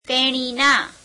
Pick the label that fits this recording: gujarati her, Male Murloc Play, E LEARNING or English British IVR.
gujarati her